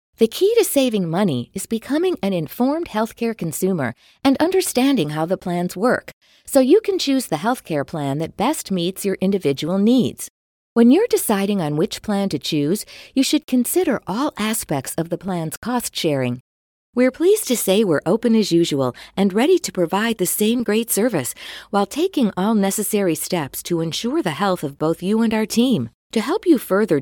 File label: Explainer Videos